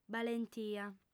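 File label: "balentia", lingua sarda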